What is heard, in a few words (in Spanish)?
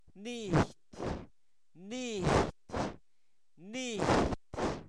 caña, Zapoteco, santo domingo albarradas; comestibles; INALI